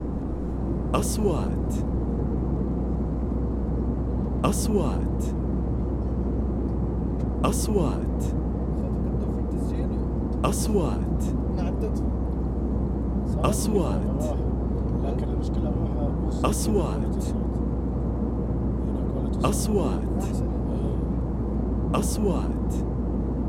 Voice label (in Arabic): الصوت داخل الطائرة, airplane people talking